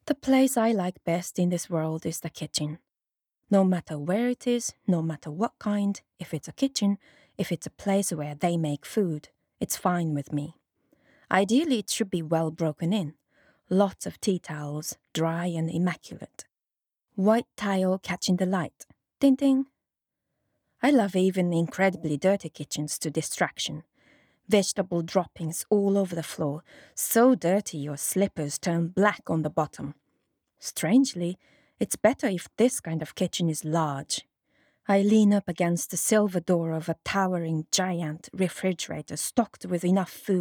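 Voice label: Japanese English F Narration, female, accent of Japan; voice over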